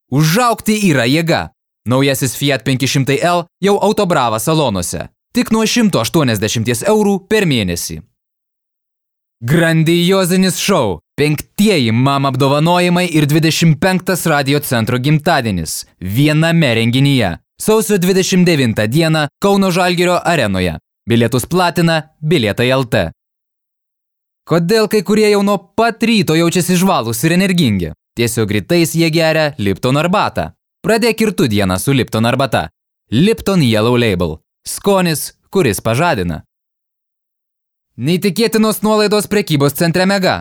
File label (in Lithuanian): reklama